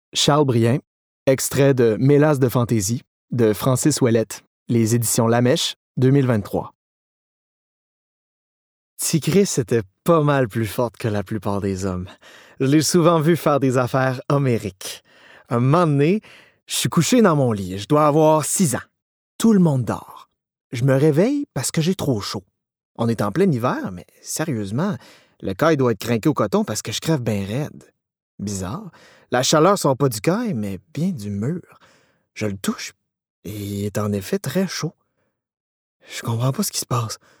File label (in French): Livre Audio